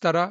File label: Male